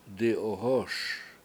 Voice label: "di7ohOS" my hat, Washo